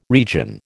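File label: "region", American English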